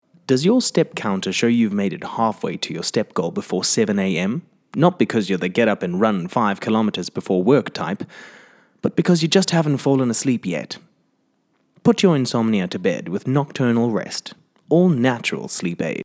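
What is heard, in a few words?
Neutral South African Sleep Aid Voice Over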